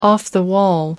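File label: "off-the-wall", British English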